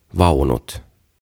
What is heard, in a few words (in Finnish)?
"Vaunut", suomeksi; OTAVA KONSERNI; aantamisohjeet suomeksi